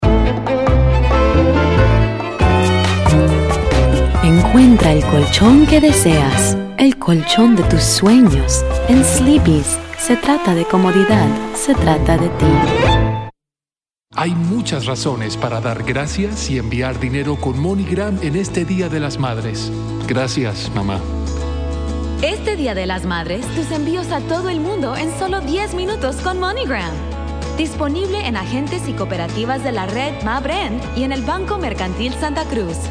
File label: spanish commercial